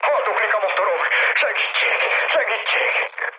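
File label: Hungarian damaged engine mood high, speech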